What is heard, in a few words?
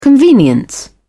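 "convenience", British English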